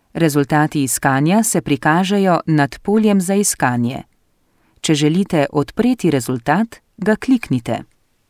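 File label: slovenian female voice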